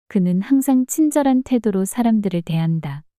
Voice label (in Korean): 그는 항상 친절한 태도로 사람들을 대한다 문장 여자